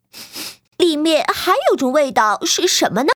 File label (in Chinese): 女 动画 动画片 狐狸; 狐狸费雷迪